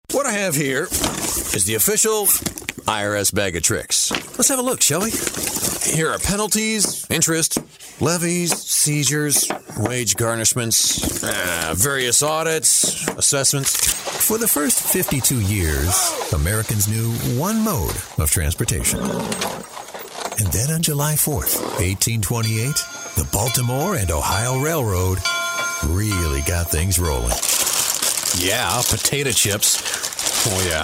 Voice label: Commercial